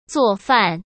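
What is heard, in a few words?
"做饭", Mandarin Chinese; hsk; chinese-flashcards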